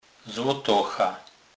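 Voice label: "zlotocha", Polish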